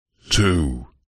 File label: radio prod male voice says two processed